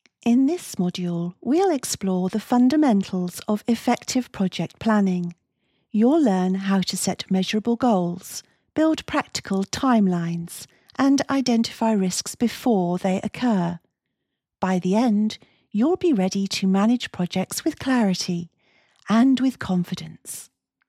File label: E LEARNING Professional Training, voiceovers